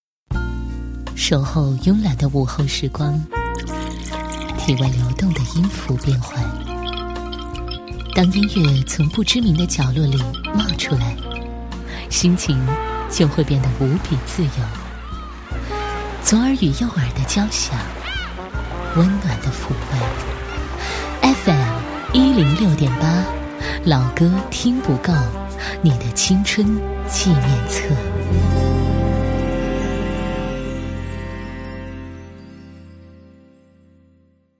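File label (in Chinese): 女4号 栏目包装 音乐广播（合成）, 国语女声配音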